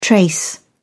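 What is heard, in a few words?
"trace", British English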